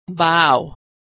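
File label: "vow", American English; vowel aw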